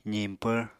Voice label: "ziñpvr", Apatani

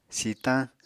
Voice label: "sitañ", Apatani

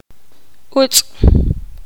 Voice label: poqomam; mosca

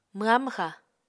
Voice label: "mwha-mwxa", Apatani

